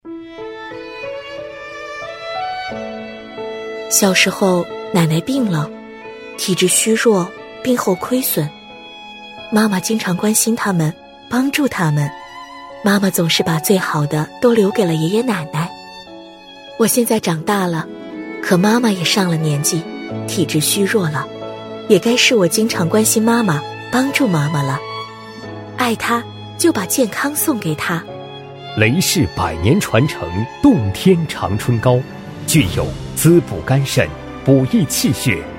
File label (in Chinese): 女 中文宣传 孝善片花; 小时候奶奶病了